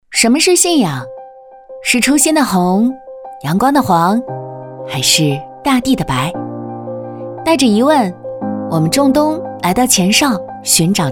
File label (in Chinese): 女 青春自述有力量